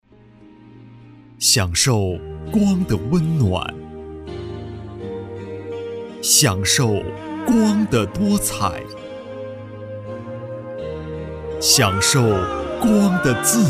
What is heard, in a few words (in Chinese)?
男 广告宣传; 中国光大集团